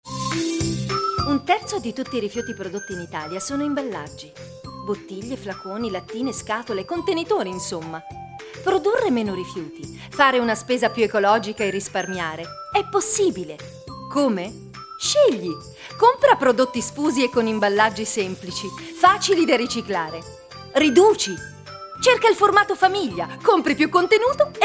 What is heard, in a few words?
Spot Audio